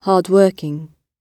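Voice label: "hard working" spoken, British English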